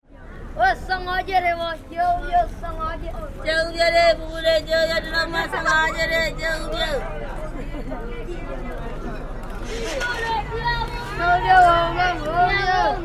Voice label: midnight vendors, Burma